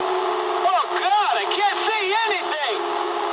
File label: eng searchlite mood med, speech eng; War Thunder